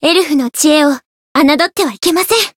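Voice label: Voice win, Japanese; veina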